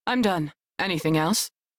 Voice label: Crew Task Done, Female; Railjack Crew; New Loka

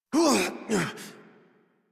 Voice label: Horse Mount Adult; Warframe; Duviri

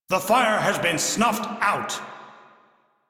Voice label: Crew Fix Fire, Male; Dialog; en; Warframe; Railjack Crew; Arbiters Hexis

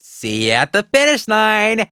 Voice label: Game Start Arcade Machine; Warframe; 1999; Ollies Crash Course

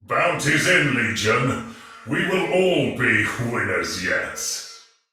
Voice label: Patrol spoken, male soldier; Dialog; Barks; Battle; Sinecure

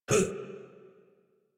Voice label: Adult Operator Jump, en; Warframe; New War

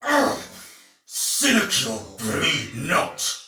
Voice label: Damage Low Chance, Soldier Male; Dialog; Barks; Battle; Sinecure

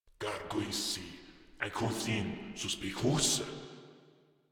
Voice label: Patrol Grineer Male, Barks, Battle

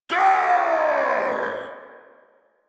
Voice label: angry, en; Warframe; Tyl Regor Boss; Efforts

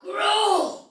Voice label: Attack Normal Soldier Female, Battle Barks; Dialog; Sinecure

